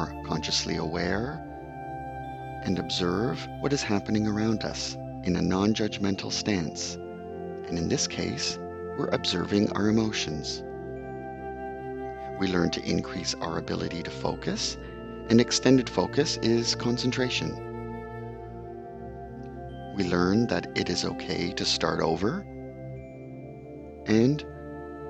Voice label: Meditation with Music

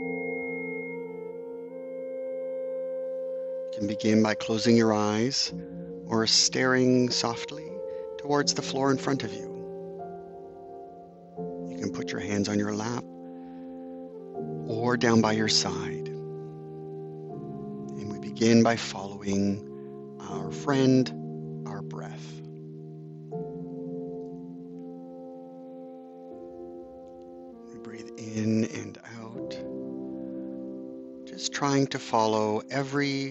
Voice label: Compassion Breathing Meditation

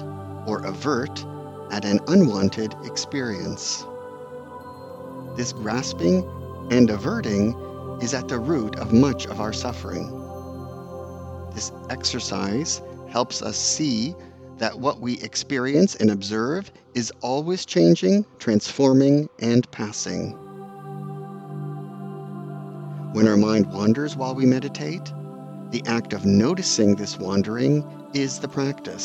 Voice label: Open and Allowing Meditation with Music

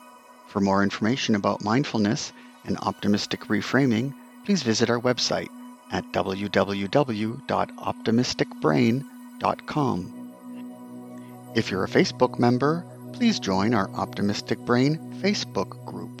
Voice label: Breath Meditation with Music

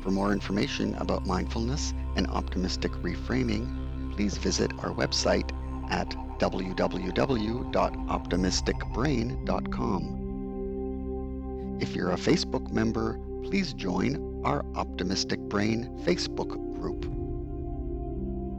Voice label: Mantra Meditation

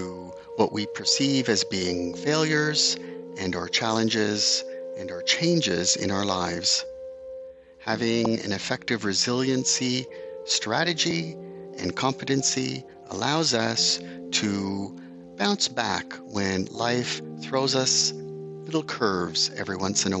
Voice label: Resilience Meditation

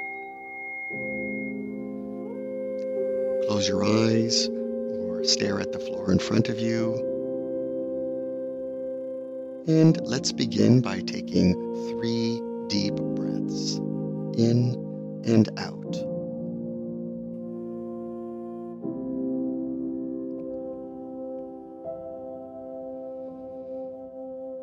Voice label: Sleep Self Compassion Meditation

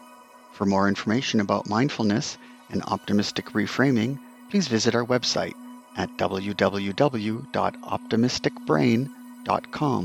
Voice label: Sleep Breath Meditation with Music

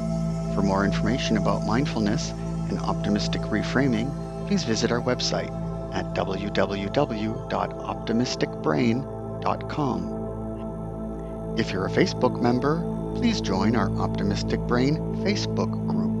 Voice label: Muscle Tension Meditation with Music

